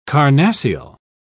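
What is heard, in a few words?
"carnassial", British English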